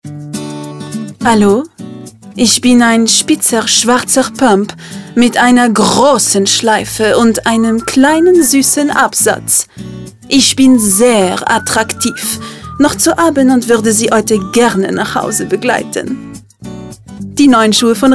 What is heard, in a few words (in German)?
Radiospot (DE); „Reno“